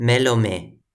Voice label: "melome", Toki Pona